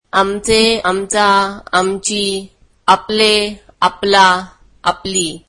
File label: marathi our